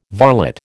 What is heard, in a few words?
"varlet", American